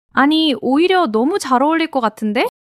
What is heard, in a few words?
joy; monologue